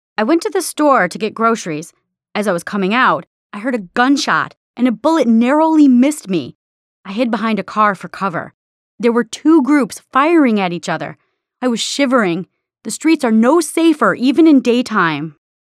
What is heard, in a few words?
Character, Voice Samples; NIIT